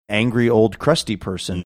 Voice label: angry old crusty person